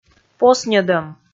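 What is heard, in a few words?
"посньӧдӧм", Komi, female; kpv audio dict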